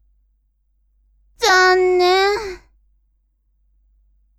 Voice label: woman